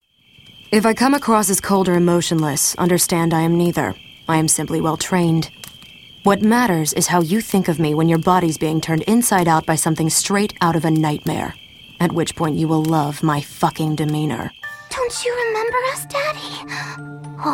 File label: interactive, voiceover